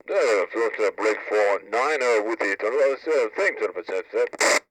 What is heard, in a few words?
Police Radio Chatter; law; Gameznet